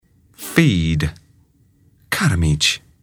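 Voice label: "feed", English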